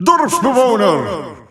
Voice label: Dutch Announcer; Villager; SSBU